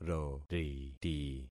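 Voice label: "roriti", concatenated syllables; Affixing experiment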